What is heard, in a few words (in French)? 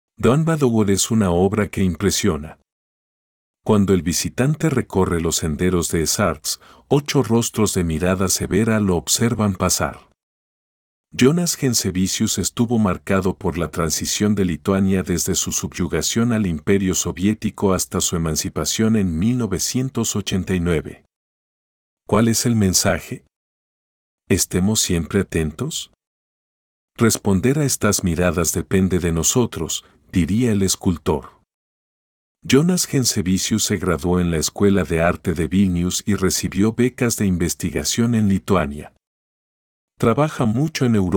espagnol